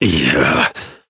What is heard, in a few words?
pain low health warning; Operative